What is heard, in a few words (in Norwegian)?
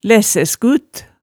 "læssæskut", hemsedalsmaalet tale